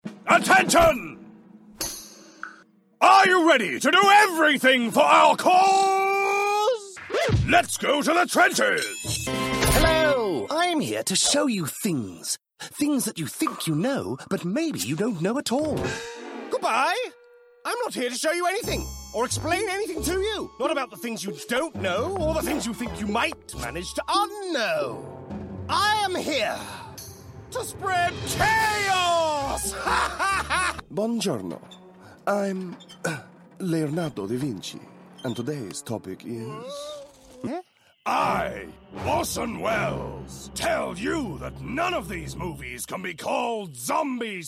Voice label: male british english cartoon comercial